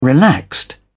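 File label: "relaxed", British English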